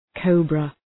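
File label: "cobra", English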